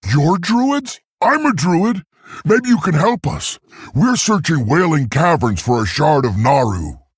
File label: Male Tauren; Guff